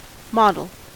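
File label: "model", US English; pronunciations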